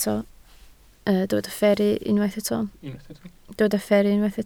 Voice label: "fferru", Welsh